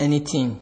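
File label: "anyting", Nigerian Pidgin, male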